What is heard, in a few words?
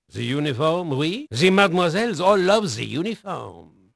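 French male, voice; Pirates Of The Caribbean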